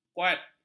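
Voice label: "kuat", Malay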